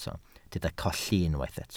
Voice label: "colli", Welsh